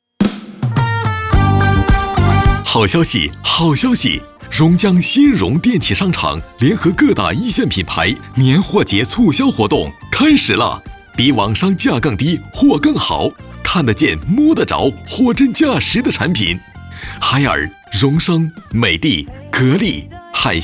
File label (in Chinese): 男 促销 零售类 阳光激情 青春活力